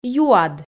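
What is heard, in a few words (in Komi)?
"юад", Komi, female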